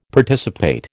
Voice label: "Participate", American

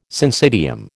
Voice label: "syncytium", American

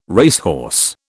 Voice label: "racehorse", British

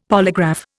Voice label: "polygraph", Indian; Dictionary

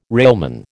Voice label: "railman", American

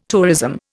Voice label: "tourism", Indian

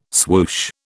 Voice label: "swoosh", British

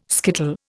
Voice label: "skittle", Indian

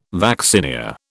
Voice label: "vaccinia", British

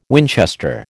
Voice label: "Winchester", American

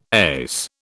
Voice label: "ace", British

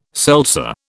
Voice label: "seltzer", British

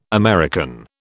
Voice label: "American", British

